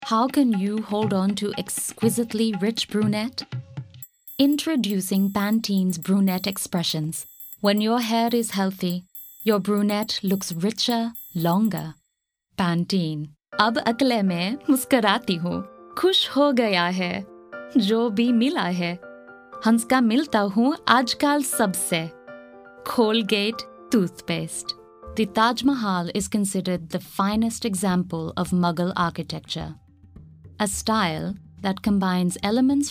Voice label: indian accent commercial narrative